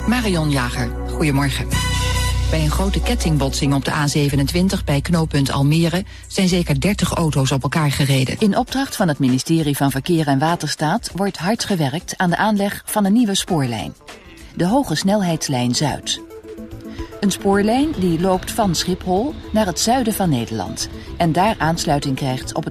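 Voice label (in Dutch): dutch female narration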